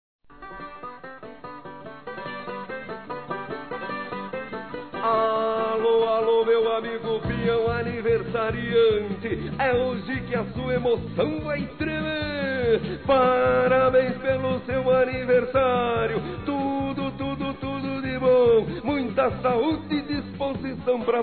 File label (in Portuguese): LOCUTOR DE RODEIO, masc; Telemensagens; Telemensagens 2017; Engraçadas